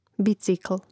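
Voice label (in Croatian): "bicikl", Hrvatski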